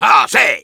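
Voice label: positive vocalization, Spanish; Soldier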